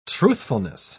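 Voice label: "truthfulness", British English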